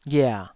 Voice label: "ghia3", Taiwanese

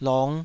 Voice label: "long5", Taiwanese syllable

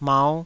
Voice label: "mau5", Taiwanese syllable

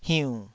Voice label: "hiun3", taiwanese

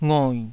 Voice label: "ngoi3", taiwanese syllables

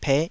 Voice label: "peh5", taiwanese

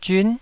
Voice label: "zyun9", taiwanese

